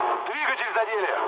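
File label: Russian damaged engine mood low, speech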